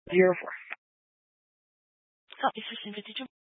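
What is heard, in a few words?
Dispatch, Scanner audio recording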